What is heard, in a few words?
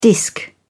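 "disc", British English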